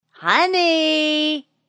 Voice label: Woman Says Honey; Woman talking; Sound Effects:Voice